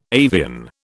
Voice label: "avian", British